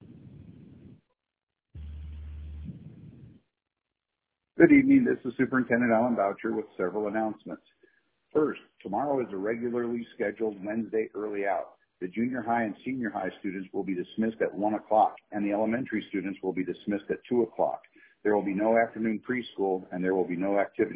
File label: voicemail